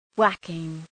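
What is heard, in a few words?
"whacking", English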